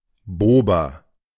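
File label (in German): "Bober" German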